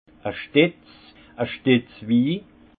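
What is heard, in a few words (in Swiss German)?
"stetz", Haut Rhin; vin; broc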